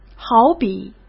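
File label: "好比", Mandarin Chinese; hsk; chinese-flashcards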